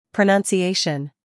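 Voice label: "scandal", American; pronunciation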